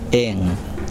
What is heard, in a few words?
wind, Kosraean